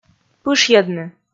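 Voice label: "пышйӧдны", Komi, female; kpv audio dict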